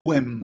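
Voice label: "gwem", Proto-Indo-European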